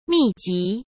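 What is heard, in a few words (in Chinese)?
"密集", Mandarin; chinese-flashcards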